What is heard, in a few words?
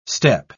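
"step", English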